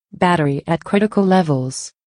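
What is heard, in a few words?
female battery critical levels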